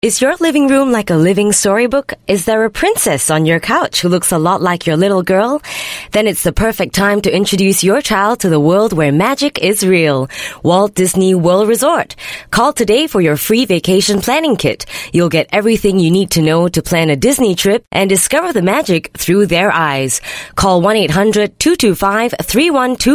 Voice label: Asian English Casual Read, Singaporean Female

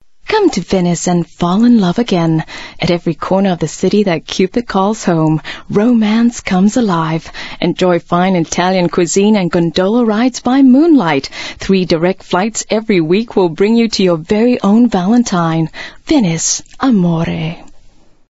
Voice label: Asian English, Singaporean Female

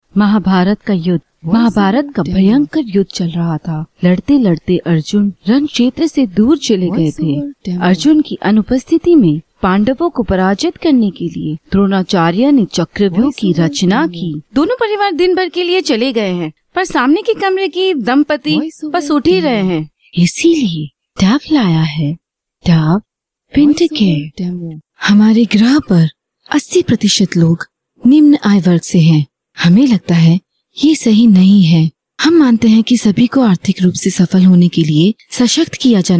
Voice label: Hindi Female; India Female

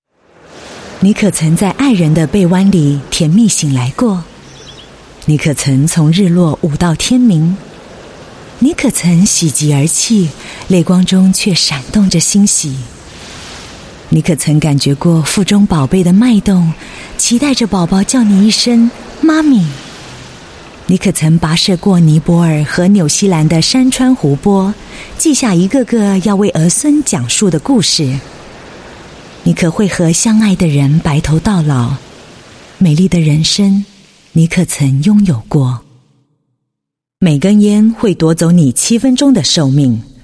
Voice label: Mandarin Anti Smoking, Mandarin China Female

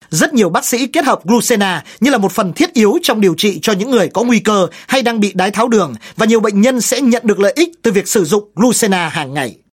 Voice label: North Male Vietnamese

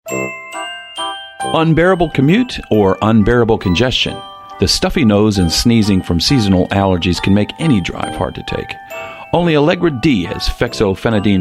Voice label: US English, English US Male

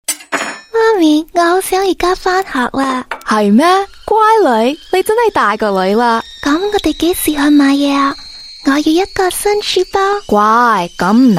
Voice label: Cantonese F, Cantonese Female; Centre Care

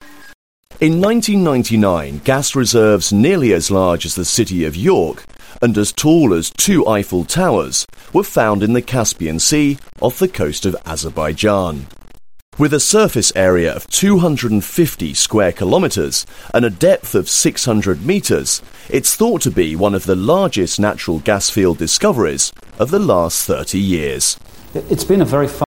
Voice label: UK English; Male; Shah Deniz Pipeline

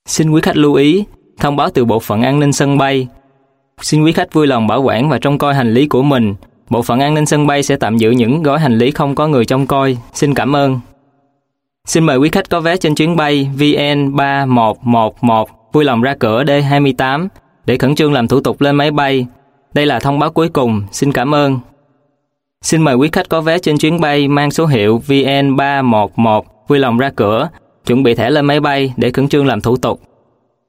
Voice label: Vietnamese Southern Clean, Vietnamese Male

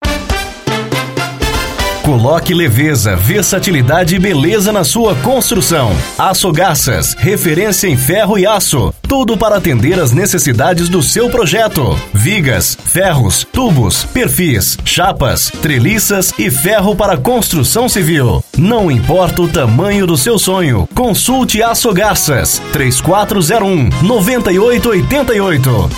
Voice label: Brazilian Portuguese Male

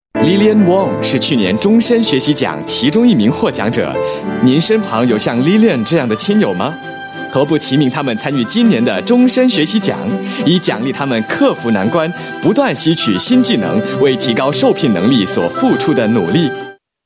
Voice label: Mandarin, Mandarin China Male

